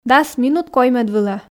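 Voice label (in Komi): дас минут коймӧд вылӧ, Komi, female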